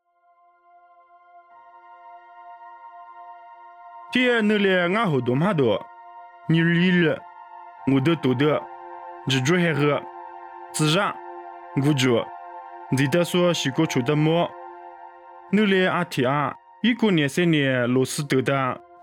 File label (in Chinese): 凉山彝语; 地中海安提阿; nuosu